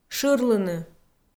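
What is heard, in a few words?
"шырлыны", Komi, female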